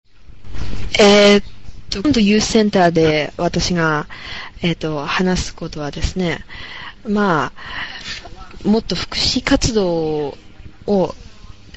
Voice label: Japanese Conversation

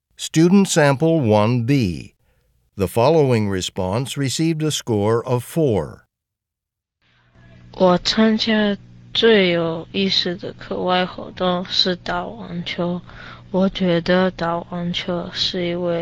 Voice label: chinese conversation

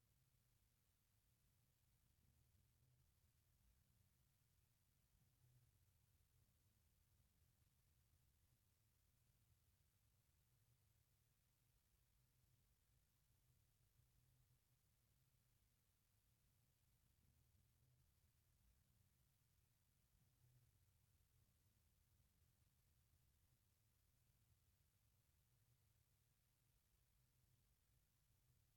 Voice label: spanish directions part b

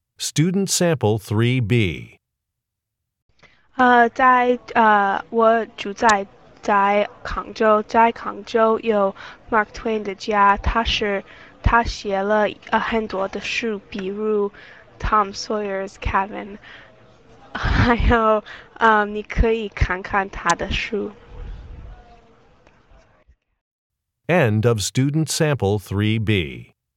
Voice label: chinese conversation

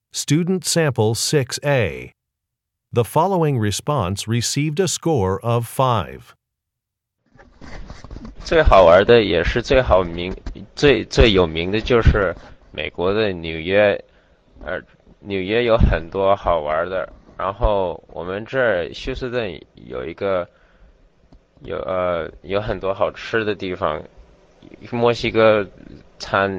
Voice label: chinese conversation

